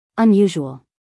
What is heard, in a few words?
"unusual", us female